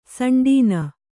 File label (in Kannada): "ಸಂಡೀನ", Kannada